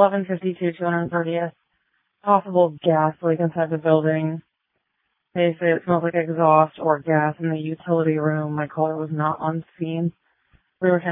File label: Waverly Fire, dispatch